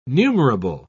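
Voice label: "numerable", British English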